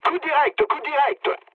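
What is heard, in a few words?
bomb success, mood low, French; War Thunder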